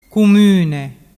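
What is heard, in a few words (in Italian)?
"cumune", Vernazzese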